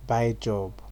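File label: "buy job", Nigerian Pidgin, male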